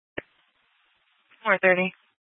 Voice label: Sheriffs Office, scanner